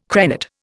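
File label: "crenate", Indian English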